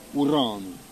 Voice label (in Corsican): "ugranu", lingua corsa